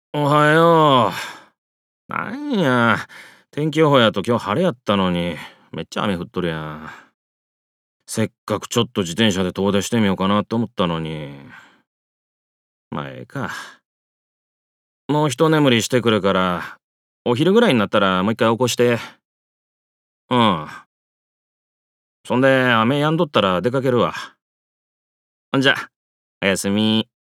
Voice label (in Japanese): 伊勢弁